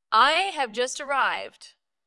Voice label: VoiceActing es

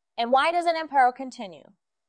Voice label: VoiceActing es

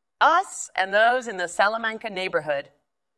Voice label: VoiceActing es F

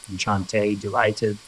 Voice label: Voice Acting, es

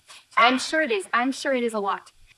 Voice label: Voice Acting, es, F0

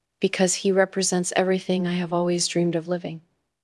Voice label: Voice Acting es

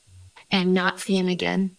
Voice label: Voice Acting, es, F0

